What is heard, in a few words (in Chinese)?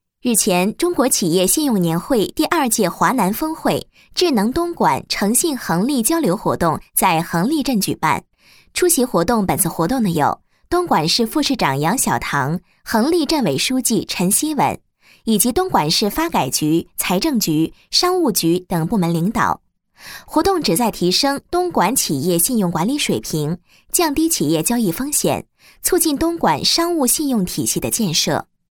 专题 新闻; 东莞新闻